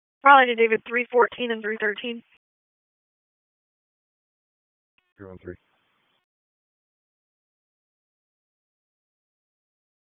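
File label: Police Northeast District Dispatch, p25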